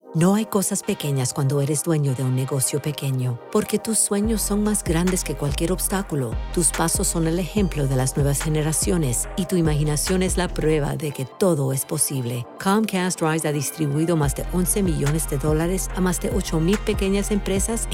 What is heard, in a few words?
Spanish radio mix; Comcast Rise